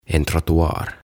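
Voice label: "En trottoar", Swedish, "Jalkakäytävä", Finnish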